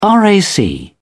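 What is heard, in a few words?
"rac", English, uk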